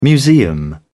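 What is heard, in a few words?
"museum", British English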